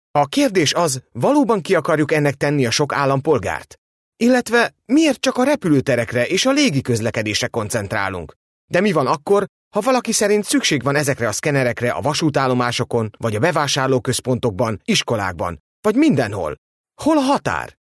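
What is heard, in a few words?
Hungarian, male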